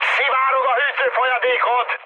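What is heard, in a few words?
Hungarian damaged water mood high, speech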